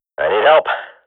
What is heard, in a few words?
radio bot under fire, swat; agents